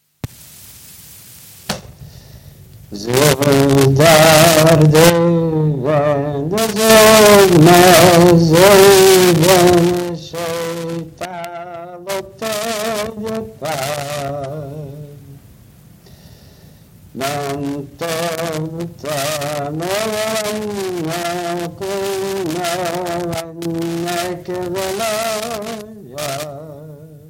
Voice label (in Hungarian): nyelvjaras gyujtesek